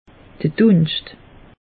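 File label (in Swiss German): "dunscht", Alsatian; meteo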